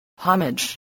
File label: "homage", voice spelling